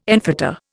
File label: "enfetter", Indian